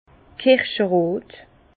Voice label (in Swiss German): "kirscherot", Bas Rhin; vin; cerise